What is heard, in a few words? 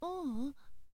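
boy, "uun"; shonen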